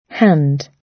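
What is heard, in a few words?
"hand", British English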